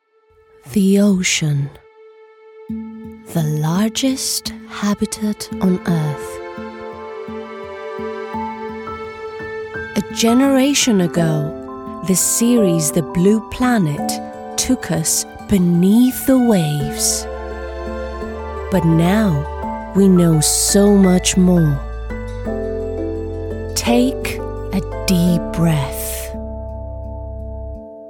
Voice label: English